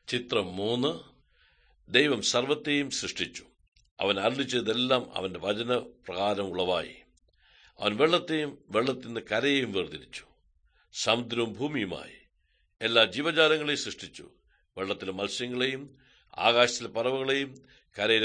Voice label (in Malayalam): good news malayalam, drama